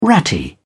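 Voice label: "ratty", British English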